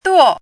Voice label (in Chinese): "duo4", 汉字语音